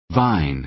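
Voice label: "vine", American English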